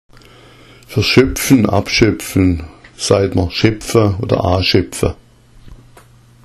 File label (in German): "schepfa", schwaebisch; Für schöpfen / abschöpfen sagt man schepfa / a’schepfa